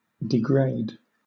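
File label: "degrade", British English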